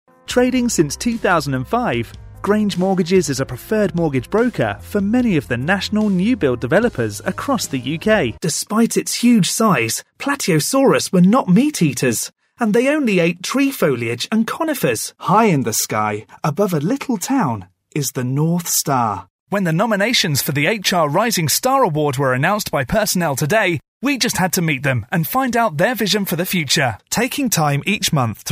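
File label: corporate, male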